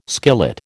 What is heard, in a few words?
"skillet", American